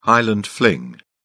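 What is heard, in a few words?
"highland fling", English, British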